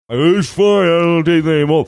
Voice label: saying its fine i dont taste any mold mouth full food